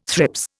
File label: "thrips", Indian